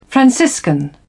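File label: "franciscan", English, British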